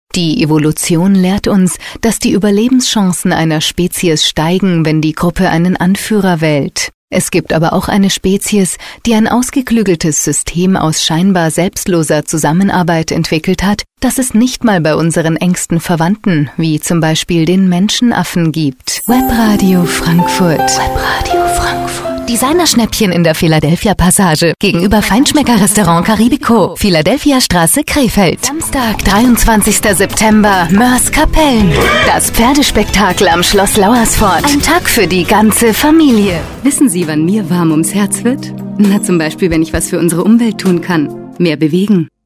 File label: German